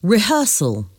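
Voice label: "rehearsal", English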